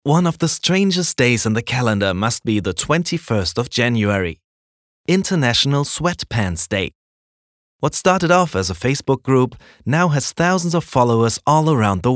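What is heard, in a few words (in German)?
British English, Freundlich; International Sweatpants Day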